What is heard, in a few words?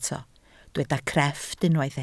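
"crefft" Welsh, spoken word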